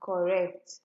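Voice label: "correct", Nigerian Pidgin, female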